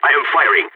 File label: in combat, balkan; vo